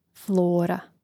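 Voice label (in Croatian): "flora", hrvatski; mreznik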